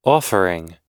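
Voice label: "offering", American English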